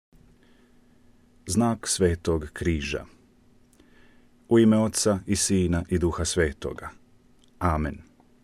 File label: Sign of the Cross Croatian